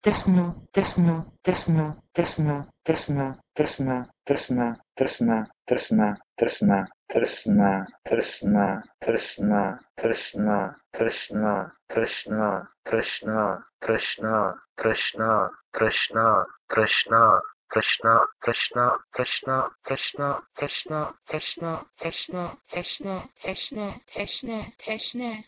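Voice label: Proto-Indo-European "trsno" to Persian "teshne"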